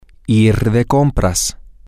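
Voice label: shopping, Spanish, male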